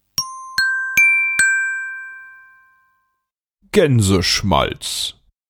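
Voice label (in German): "Gänseschmalz", German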